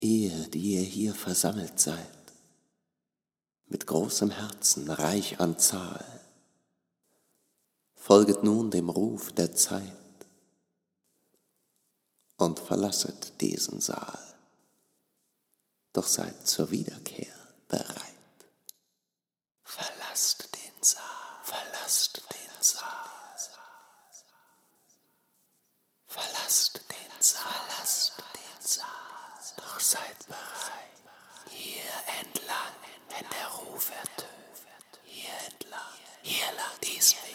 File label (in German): Pausenansage; Bosch